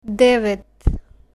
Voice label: "devet", Bulgarian numbers

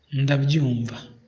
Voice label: "understand", kinyarwanda

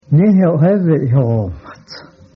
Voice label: hello, Cheyenne

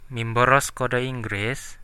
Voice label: do you speak english, centraldusun

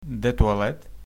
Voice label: "toilet", Ukrainian

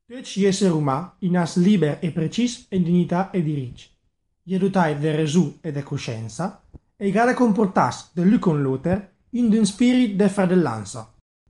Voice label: Lombard

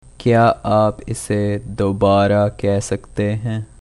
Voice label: say again, Urdu

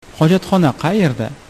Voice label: toilet, uzbek

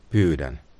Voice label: please, finnish

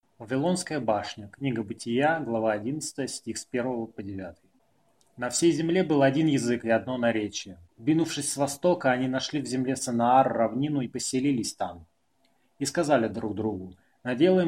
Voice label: russian

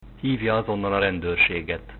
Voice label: police, Hungarian

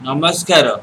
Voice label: hello odia

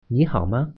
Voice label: how are you, mandarin; 你好嗎?; [你好吗] (nǐ hǎo ma); Chinese (Mandarin)

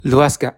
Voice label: leave me alone, bonggi

